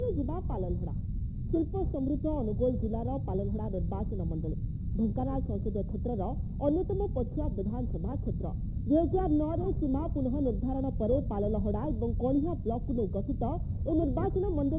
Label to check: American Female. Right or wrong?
Wrong